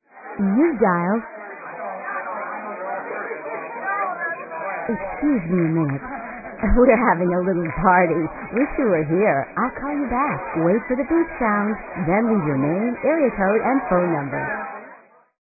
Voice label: Party Time, answering machine messages